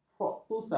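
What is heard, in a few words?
"fruktosa", Malay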